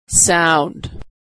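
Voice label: "sound", American English; vowel aw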